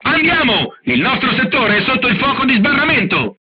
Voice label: art shooting warning, crew tanks italian; War Thunder

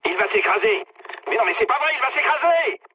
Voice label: aircraft destroyed mood med, speech fr

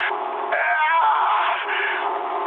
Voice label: damaged pilot, speech ru

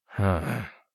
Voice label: mutter; Escape from Tarkov

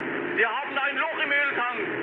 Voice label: damaged oil, mood high, speech ge; War Thunder

